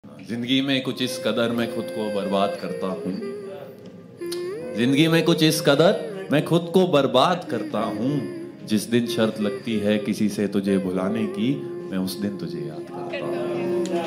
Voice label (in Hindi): shayari sad; breakup sad status